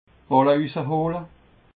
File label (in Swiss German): "balle usse hohle", alsace